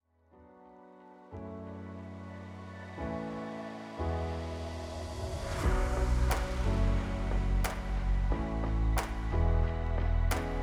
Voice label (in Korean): 광고; 네스프레소